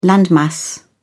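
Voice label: "landmass", British English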